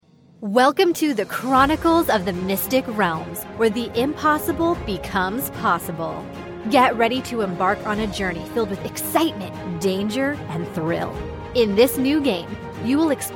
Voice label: Video Trailer Audiobook